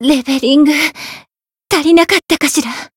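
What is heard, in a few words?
Voice win barely, Japanese; hisumena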